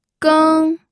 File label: "geng1", pinyin audio